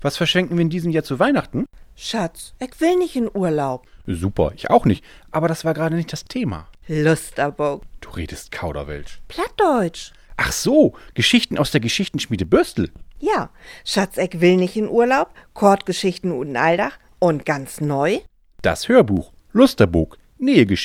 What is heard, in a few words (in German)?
Werbespot; Lusterbook